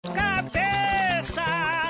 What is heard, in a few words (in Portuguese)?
comercial baby shampoo; johnsons